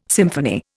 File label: "symphony", Indian